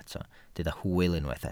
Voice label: "hwyl", Welsh